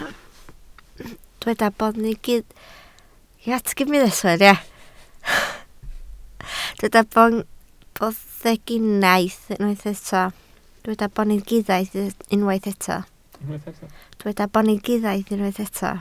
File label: "boneddigaidd", Welsh